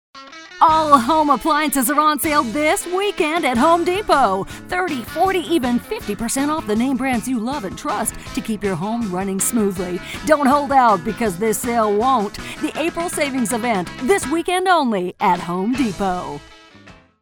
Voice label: hard sell